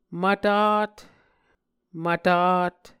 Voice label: "mvtat", muscogee